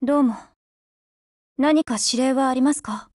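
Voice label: HELLO, Japanese; GF Alarm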